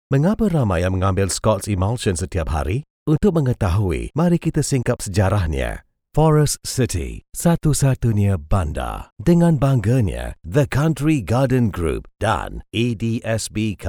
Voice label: VO Malay

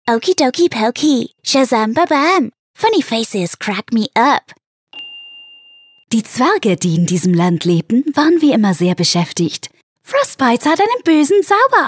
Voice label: cartoons videogame animation

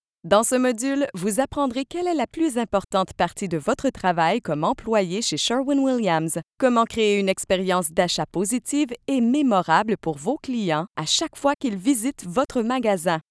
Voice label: E-Learning; Sherwins-Williams

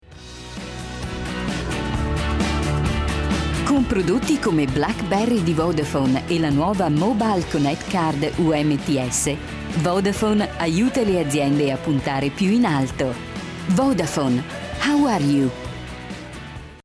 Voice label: Distinct credible warm; Vodafone

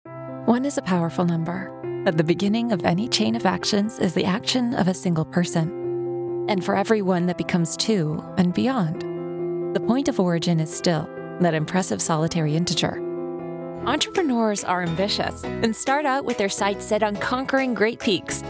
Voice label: explainer Music

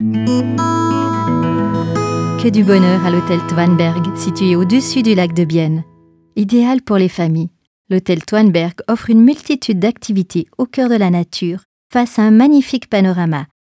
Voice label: SPOT TV; Twannberg

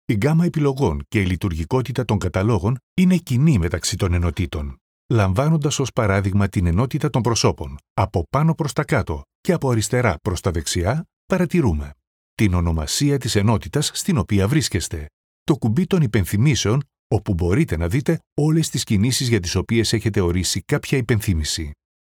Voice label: E-learning, NEUMANN TLM67

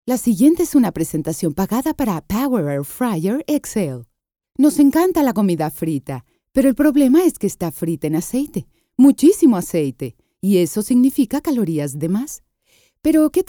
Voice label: Spanish Tv Radio spot

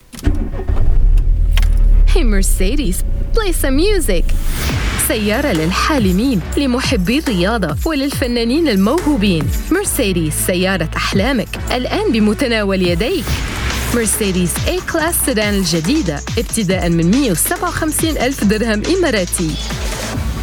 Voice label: Modern Standard Arabic Promo; Mercedes A Class Sedan Dubai

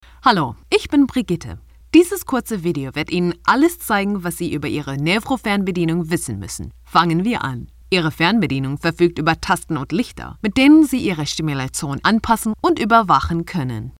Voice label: Dubbing in GERMAN

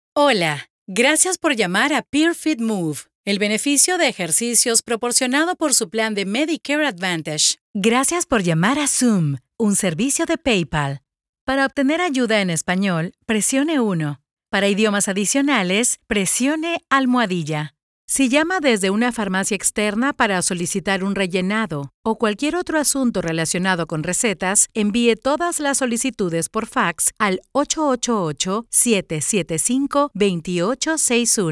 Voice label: IVR

